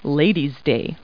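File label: woman; Talking Clip Words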